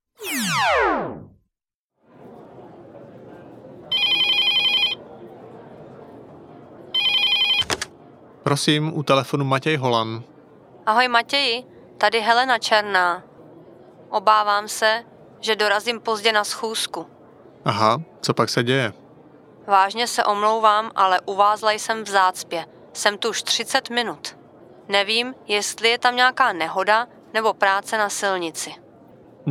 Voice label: dialog, czech; czechclass101